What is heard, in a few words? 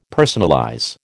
"personalize", American